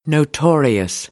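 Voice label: "notorious", American English; AmericanBritish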